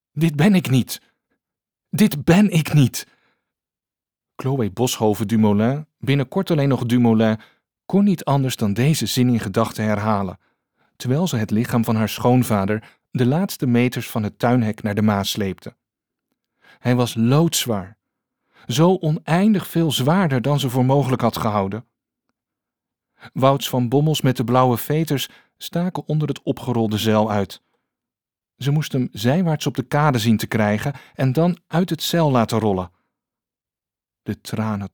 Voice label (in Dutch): Luisterboek spanning